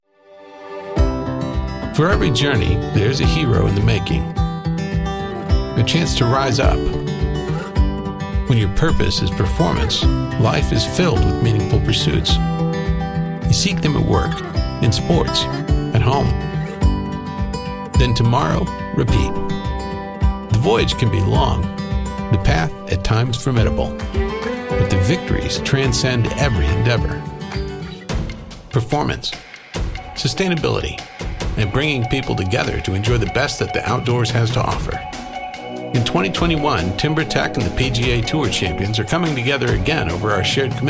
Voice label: Relatable Believable Down To Earth